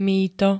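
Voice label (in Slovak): "mýto", Slovenské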